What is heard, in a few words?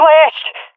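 blinded, swat female; vo; Counter Strike Model Pack; CS:GO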